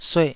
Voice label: "sueh2", taiwanese Syllables